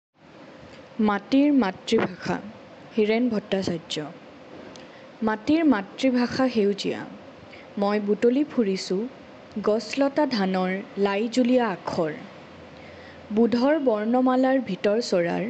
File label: Assamese Poem